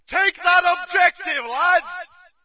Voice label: brit take obj; Source Engine; dod